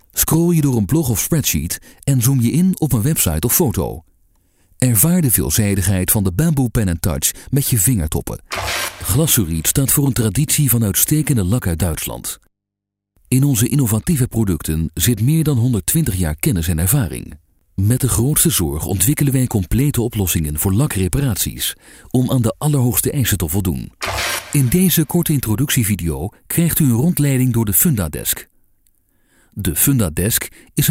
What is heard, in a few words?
male dutch narration and e-learning